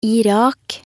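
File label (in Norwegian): "Irak", Norwegian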